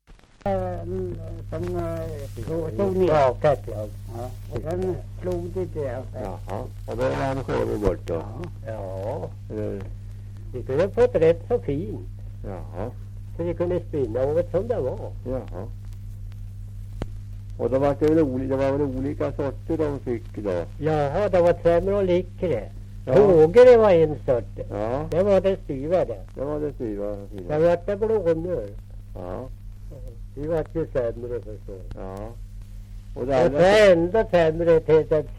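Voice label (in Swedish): Björnlunda Södermanland, dialekter; Folkminnen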